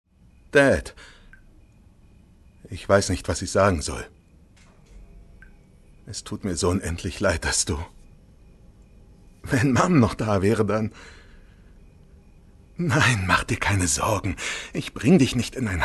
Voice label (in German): Alles wird gut Dad Synchron